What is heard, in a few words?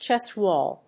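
"Chest wall", English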